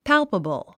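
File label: "palpable", English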